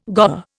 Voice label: "goer", Indian